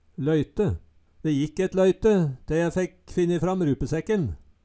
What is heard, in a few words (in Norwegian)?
loyte, Numedalsmål; løyte